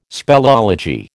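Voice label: "speleology", American English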